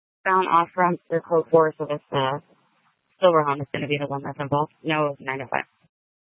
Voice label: Tempe PD, scanner; Rgnl Wireless Co-op (RWC)